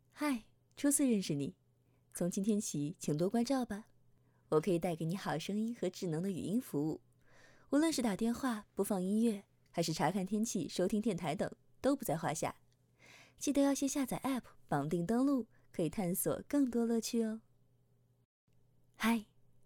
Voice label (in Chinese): AI智能语音